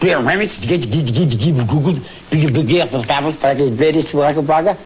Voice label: Babble